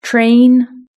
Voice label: "train", British English